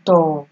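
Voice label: "toh", Nigerian Pidgin, female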